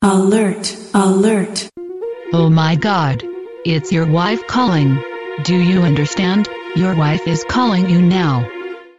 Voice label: Oh My God Wife Calling Ringtone

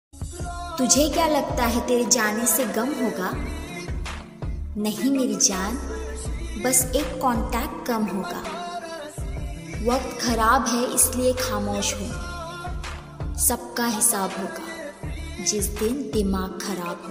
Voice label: Girl Attitude Shayari Ringtone

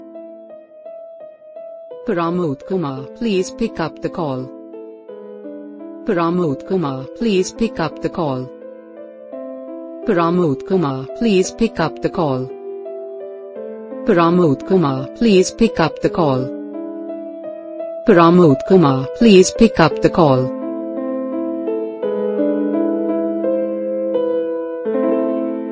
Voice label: Name Ringtone Hindi

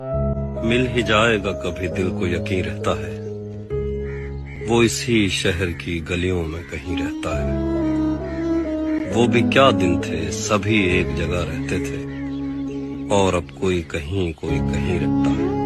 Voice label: Shayari Ringtone

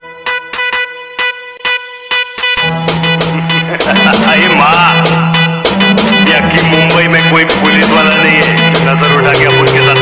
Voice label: Attitude Dialogue Ringtone; Mumbai Pay Raj Krta Hun; Sanjay Dutt

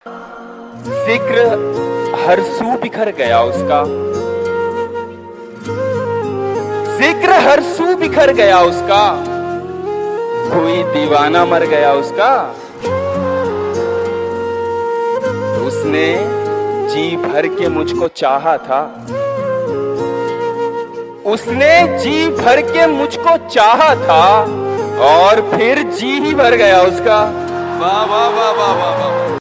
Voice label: Shayari Ringtone

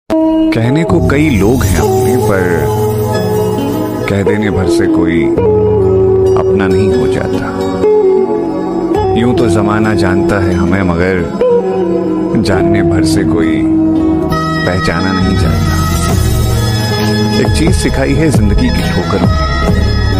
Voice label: Shayari Ringtone

